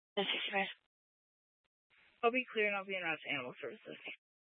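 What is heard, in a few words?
Sheriff Dispatch, Scanner audio recording archive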